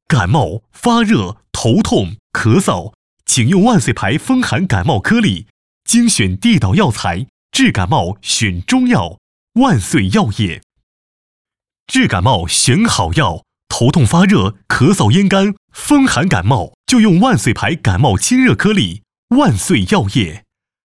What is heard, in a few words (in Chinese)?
男 沉稳广告 感冒颗粒